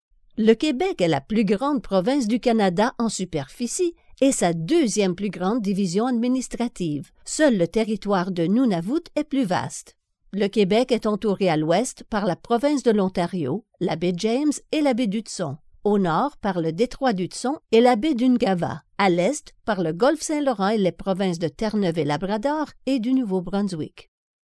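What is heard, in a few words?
French Canada, female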